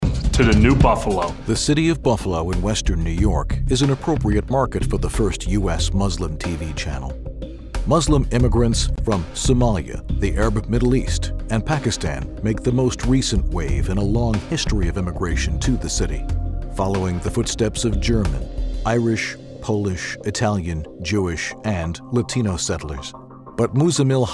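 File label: American documentary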